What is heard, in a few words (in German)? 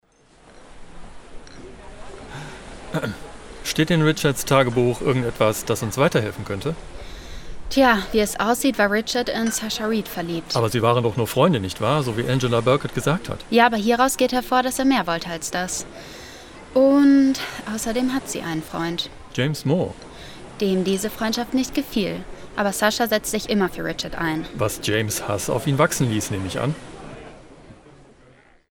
Synchronsprecher Krimi Detective Dialog ernst, Stil: Scharfsinnig, kombinierend, ruhig, natürlic; Detective Inspector; (Death in Paradise); investigativ